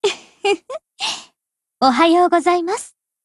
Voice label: HELLO JP; GF Alarm; M1903